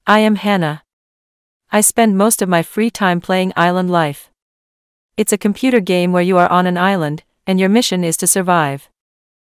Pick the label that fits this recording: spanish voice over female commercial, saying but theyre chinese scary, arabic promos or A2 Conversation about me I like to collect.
A2 Conversation about me I like to collect